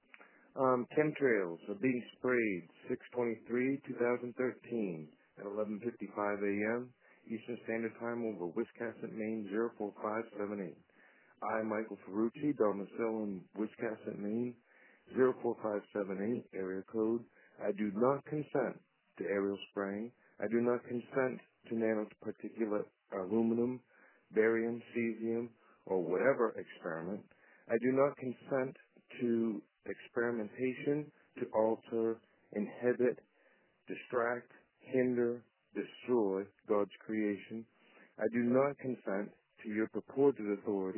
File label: voice message